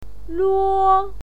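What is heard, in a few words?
"luo" first tone, pinyin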